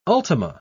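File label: "ultima", British English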